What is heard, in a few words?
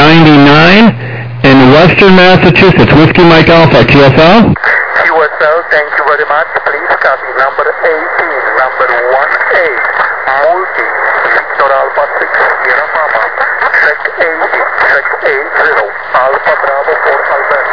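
upper sideband 21MHz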